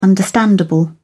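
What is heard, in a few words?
"understandable", English, British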